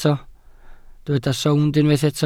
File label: "sownd", Welsh